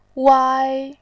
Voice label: "wai1", voice